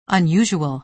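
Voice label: "unusual", American English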